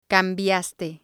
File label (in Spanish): "cambiaste", spanish